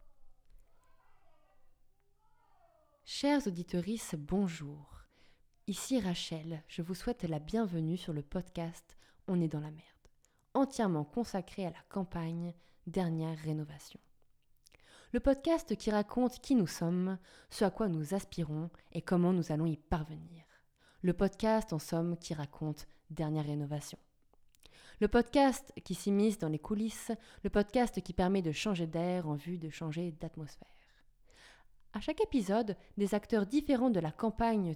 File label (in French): Narration Moins Grave, Shure SM7B; Presets Shure SM7B